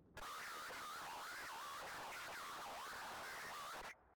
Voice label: Radio chatter; Voices